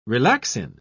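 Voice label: "relaxin", British English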